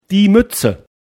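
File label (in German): "Mütze", German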